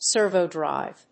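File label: "servo drive", English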